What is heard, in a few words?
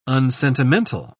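"unsentimental", British English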